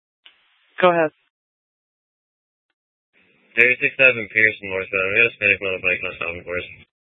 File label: Police Dispatch